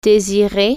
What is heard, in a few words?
desire, french